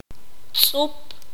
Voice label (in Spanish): "pluma", Q'anjob'al